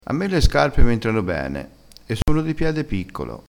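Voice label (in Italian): "fiocca", vocabolario dialettale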